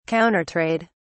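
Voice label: "Countertrade", British English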